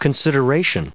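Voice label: "consideration", US English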